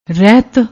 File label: "rehet", Punjabi